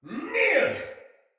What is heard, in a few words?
Attack Soldier Male; Battle; Barks; Dialog; Sinecure Soldier Male A